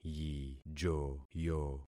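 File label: "yijoyo", concatenated syllables; Affixing experiment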